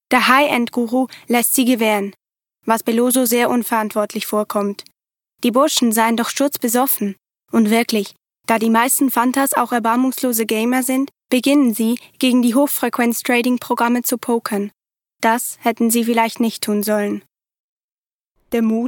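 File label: GSW Narration, Swiss German; GoLocalise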